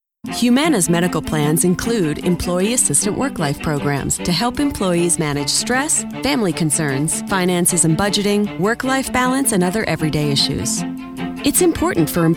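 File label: F, English US, female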